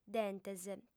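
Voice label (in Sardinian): "dentes", female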